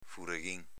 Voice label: "fureghin", dialetto veneto

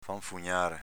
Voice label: "fanfugnare", dialetto veneto; v. balbettare

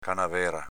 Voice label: "canavera", dialetto veneto; s.f. canna di bambù

